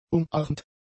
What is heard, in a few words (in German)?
"umarmt", German; adjectives